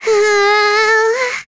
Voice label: Lavril Vox Casting, voices heroes en; kingsraid-audio